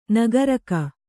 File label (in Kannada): "ನಾಗರೀಕ", Kannada